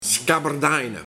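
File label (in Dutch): "Skabberdaine", dialect; Schaatsen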